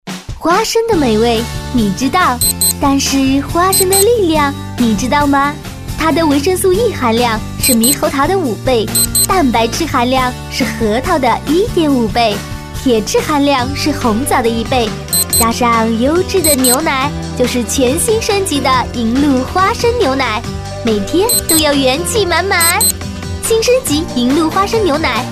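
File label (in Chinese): 牛奶花生广告甜美活力女声; 牛奶花生广告样音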